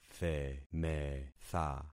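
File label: "themetha", concatenated syllables; Affixing experiment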